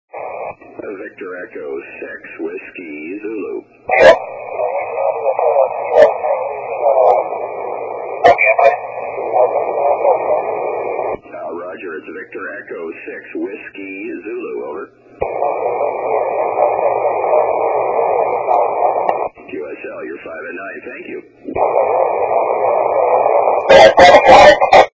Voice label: 160m, Cocos Is. on SSB.; TI9M